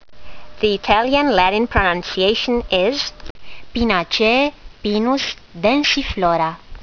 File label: latin; Pinus densiflora